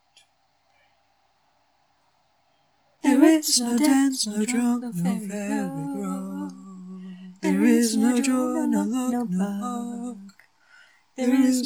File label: newscaster